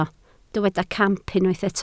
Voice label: "camp", Welsh

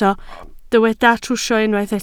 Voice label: "trwsio", Welsh

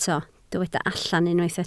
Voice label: "allan", Welsh

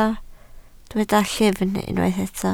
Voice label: "llyfn", Welsh

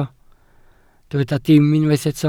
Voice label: "dim", Welsh

